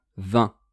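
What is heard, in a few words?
"vingt", French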